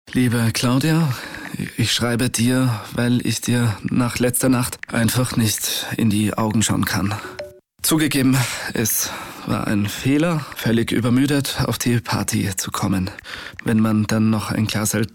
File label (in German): Mann